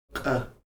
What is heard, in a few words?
"q̓", Heiltsuk